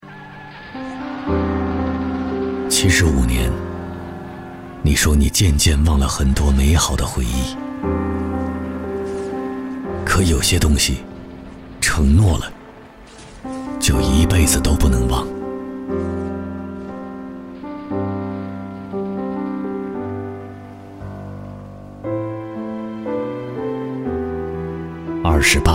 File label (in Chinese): 男 广告 旁白 走心; 时光雕刻者